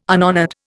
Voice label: "unhonoured", Indian

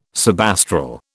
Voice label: "subastral", British

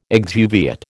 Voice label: "exuviate", American

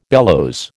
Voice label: "bellows", American

